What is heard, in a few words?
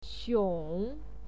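"xiong3", Mandarin Chinese pinyin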